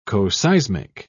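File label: "coseismic", British English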